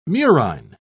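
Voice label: "murine", British English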